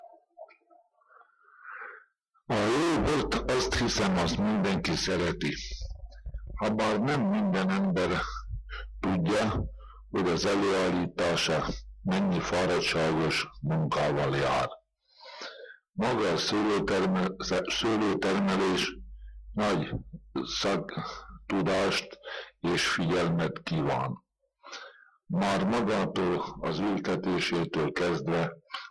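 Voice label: dialect collection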